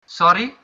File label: sorry, dutch